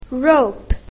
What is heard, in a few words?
"rope", English; grade1; basic sounds 1